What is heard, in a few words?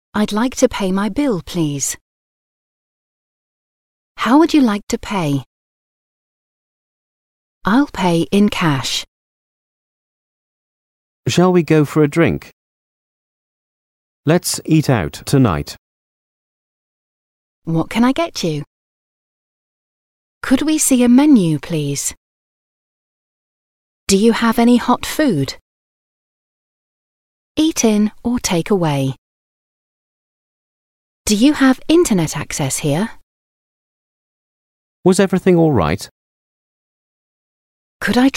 English Sentences